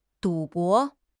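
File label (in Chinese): "赌博", Mandarin Chinese; HSK6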